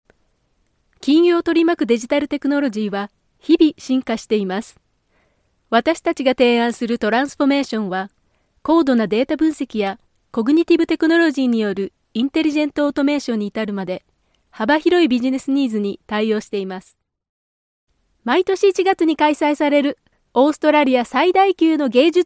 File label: Japanese Voice Over, Female